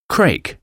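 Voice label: "crake", English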